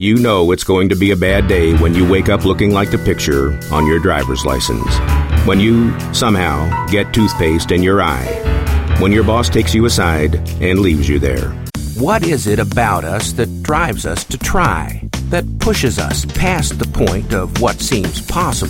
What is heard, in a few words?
Commercial